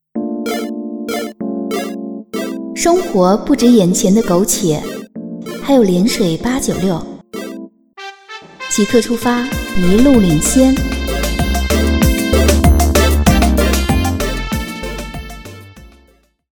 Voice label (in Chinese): 电台广告